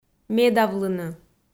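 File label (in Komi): "медавлыны", Komi, female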